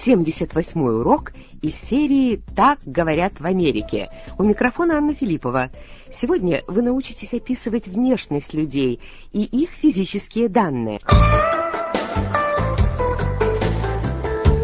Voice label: russian english